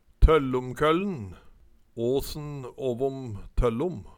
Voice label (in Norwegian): "Tøllomkølln", Numedalsmål; Tollumkollen; Særnamn (stadnamn)